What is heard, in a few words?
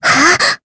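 Vox Casting, Japanese; Jane; kingsraid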